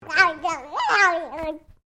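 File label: MALE 2 year old PLAYFUL; Talking Sound Effects; Talking (Sound Effects:Voice)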